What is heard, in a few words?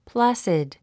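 "placid", English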